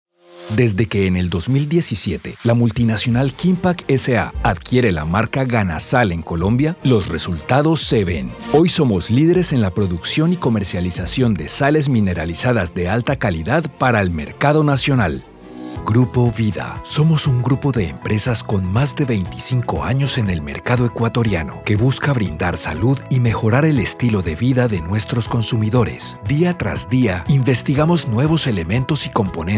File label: AUDIO CORPORATE; city life